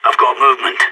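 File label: radio enemy spotted, voice; agents; sas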